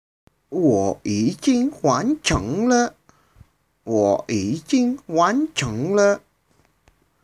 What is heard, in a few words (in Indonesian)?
Percakapan; Membaca